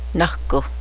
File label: bear, cheyenne language